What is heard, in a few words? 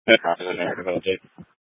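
Incident Tactical 3, Scanner audio recording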